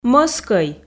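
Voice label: "мӧскӧй", Komi, female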